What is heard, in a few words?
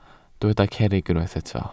"cerrig", Welsh